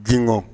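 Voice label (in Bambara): "gingɔn", Bambara word; actions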